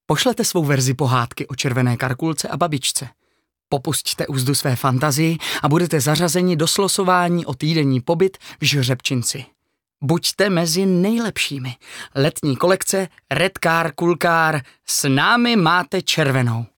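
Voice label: czech male narration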